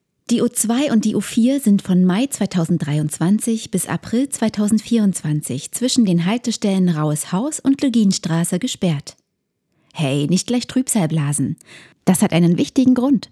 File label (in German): Info - Verkehrsbetriebe, Sprecherin